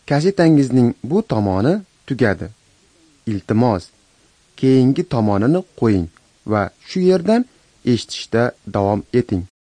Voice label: uzbek northern; acts of the holy spirit